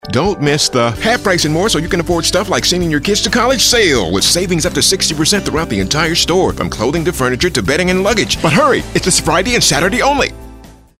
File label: high energy